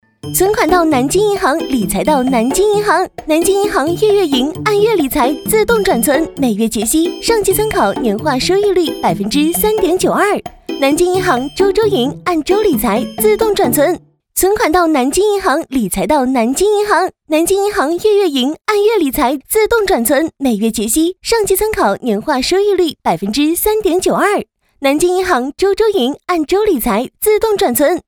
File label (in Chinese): 活泼试音; 南京银行; 半岛